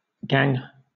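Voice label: "gang", British English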